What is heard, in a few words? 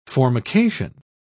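"formication", English, British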